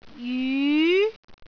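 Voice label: "jyu2", Cantonese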